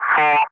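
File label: "3", calm, English; Ka-50; Wingman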